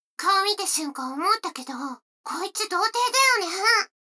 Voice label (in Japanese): 女の子 台詞